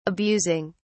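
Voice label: "abusing", English, British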